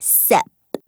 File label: VO en